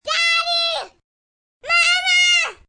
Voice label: Daddy Mamma, Calls, 1 girl, 7 yrs.; Talking Sound Effects; VoiceBox; Twistedtracks